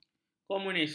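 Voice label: "komunis", Malay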